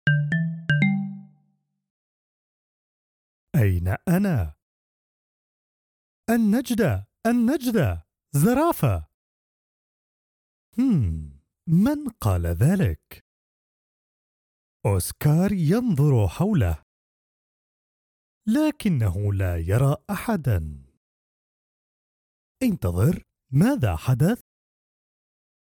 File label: Arabic Easy Reader